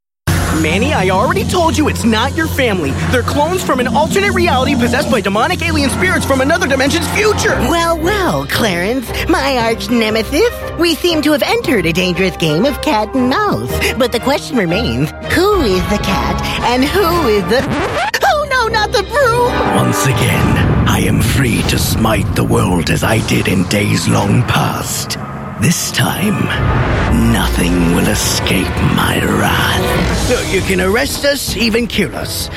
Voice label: Animation Games, Men